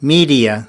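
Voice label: "media", English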